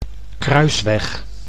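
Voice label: "kruisweg", Dutch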